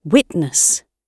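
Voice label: "witness" British English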